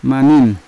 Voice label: "maning" oil, Yawa